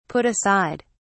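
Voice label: "put aside", British English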